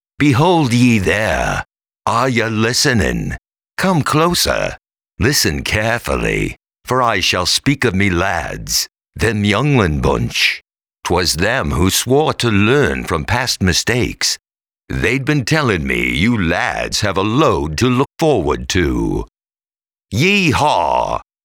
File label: ACCENT